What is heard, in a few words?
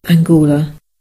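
angola, Scottish Gaelic; gcompris-data; geography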